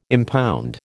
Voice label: "impound", American